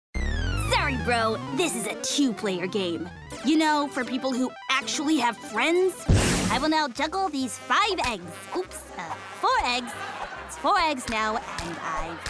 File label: Animation